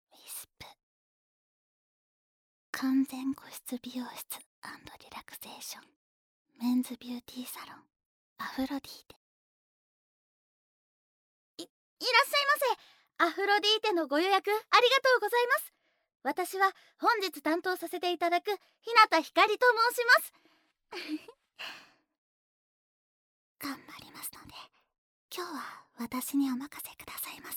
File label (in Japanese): タイトルコール, ASMR